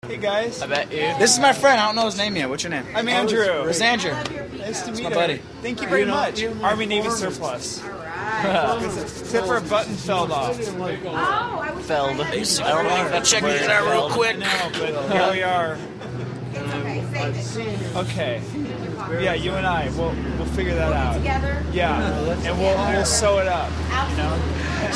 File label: conversation; booth rules